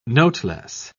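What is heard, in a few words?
"noteless", British English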